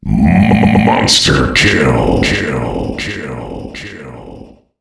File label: monster kill, Announcer Voice; Unreal Tournament